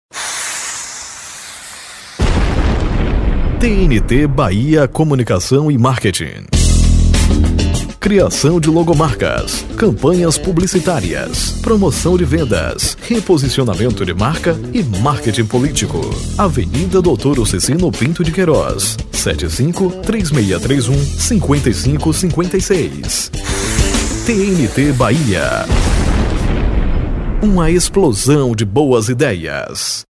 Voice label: institucional; tnt bahia

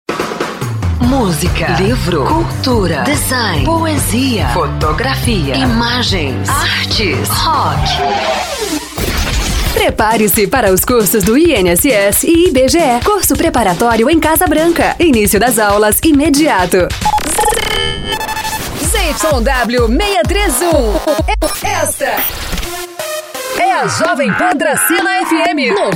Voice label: vozes femininas

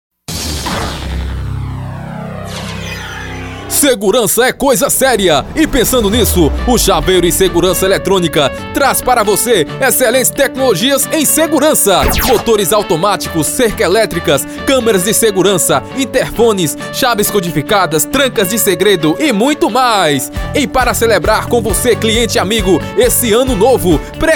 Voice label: propaganda empresa de seguranca

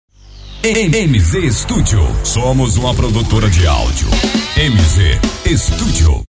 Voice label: voz de inpacto

